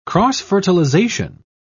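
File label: "cross-fertilisation", British English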